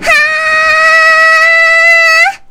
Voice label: "Bungee", Worms speechbanks